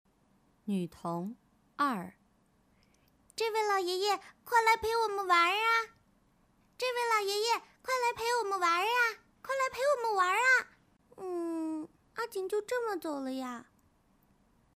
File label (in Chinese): 女 游戏动漫 萝莉音